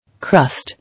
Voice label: "Crust", English

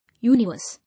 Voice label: "Universe", English

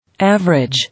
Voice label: "Average", English

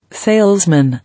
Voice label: "Salesman", English

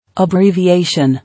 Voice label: "Abbreviation", English

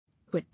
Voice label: "Wit", English

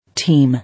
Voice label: "Team", English

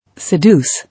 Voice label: "Seduce", English

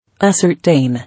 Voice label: "Ascertain", English

